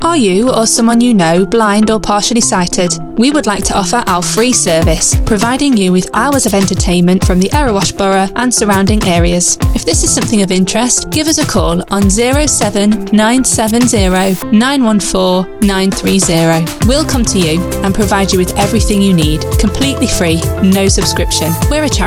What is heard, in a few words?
Talking Newspaper; Long Eaton